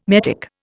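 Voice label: "metic", English, Indian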